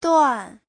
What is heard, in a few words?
"duan4", chinese; 段; (lượng từ) khoảng, quãng; Giáo trình chuẩn HSK3